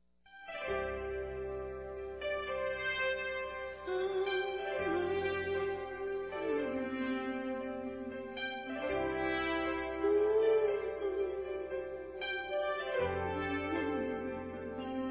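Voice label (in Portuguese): NEUTRO Masculino, Aniversários Evangelico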